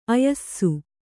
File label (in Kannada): "ಆಯಾಸ", Kannada